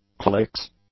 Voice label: "clicks", English; nouns